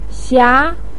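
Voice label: "xia2" Chinese syllable, pinyin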